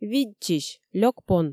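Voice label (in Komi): видзчысь, лёк пон, Komi, female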